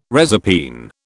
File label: "reserpine", British